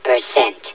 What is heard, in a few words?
"percent", hev suit; Half Life Alyx